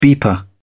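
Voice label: "beeper", English, British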